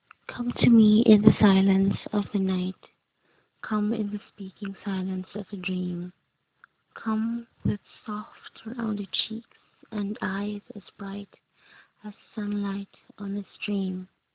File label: poetry; Echo by Christina Georgina Rossetti